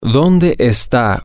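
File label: where is a clothing store, Spanish, male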